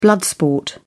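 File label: "blood sport", British English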